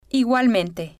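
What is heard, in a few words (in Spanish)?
"igualmente", spanish, female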